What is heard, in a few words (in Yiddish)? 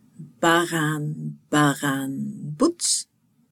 Baran baran buts, Jiddish